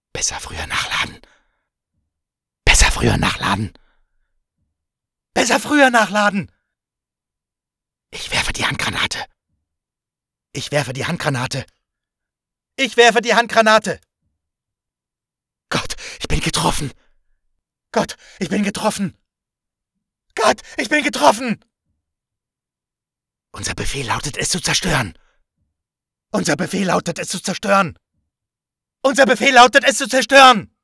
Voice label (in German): Computerspiele German Soldier